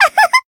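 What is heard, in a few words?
attack, Japanese; leviachan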